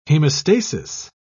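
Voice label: "hemostasis", British English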